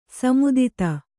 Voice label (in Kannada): "ಸೀಮಿತತೆ", Kannada